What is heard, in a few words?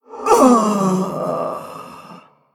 Female Elemental Death